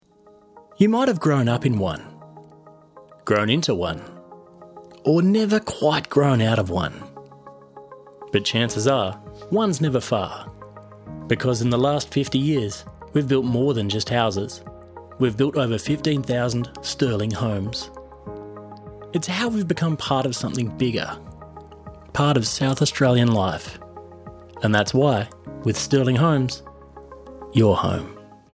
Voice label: Television Spots / Home Builder - Real Estate, Natural Male Voice; Sterling Homes